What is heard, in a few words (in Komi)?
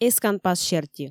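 "эсканпас серти", Komi, female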